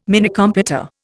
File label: "Minicomputer", Indian